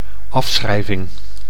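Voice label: "afschrijving", Dutch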